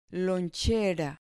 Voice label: "lonchera", Spanish

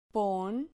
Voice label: "pon", Spanish

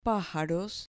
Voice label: "pajaros" Spanish

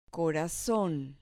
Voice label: "corazon", Spanish

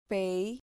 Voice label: "pei", Spanish

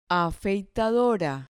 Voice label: "afeitadora", Spanish

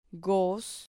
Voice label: "gos", Spanish syllable

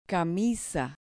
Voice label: "camisa", Spanish

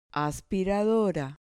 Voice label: "aspiradora", Spanish

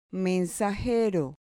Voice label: "mensajero", Spanish

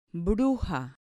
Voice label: "bruja", Spanish

